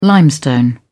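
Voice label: "limestone", British English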